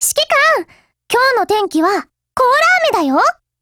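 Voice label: HELLO, Japanese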